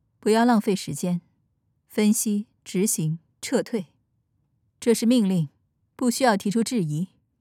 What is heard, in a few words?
foreigner; 中国語